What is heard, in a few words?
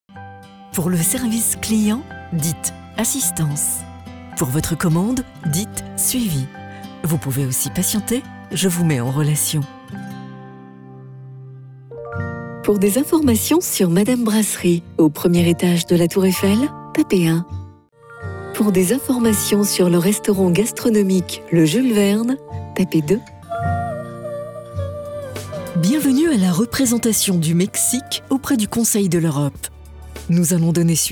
Telephony French